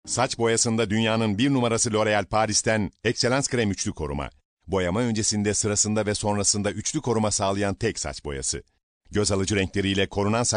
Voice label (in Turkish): enerjik tempolu